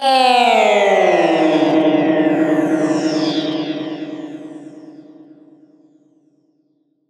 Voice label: Voice G# 140 BPM, Psytrance Voices Hits; Ghosthack; Psytrance Visions Volume 2